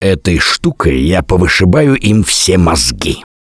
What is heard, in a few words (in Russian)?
take melee, left4dead2 russian, Survivor Voice; Gambler